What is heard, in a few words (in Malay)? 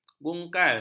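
"bungkal", Malay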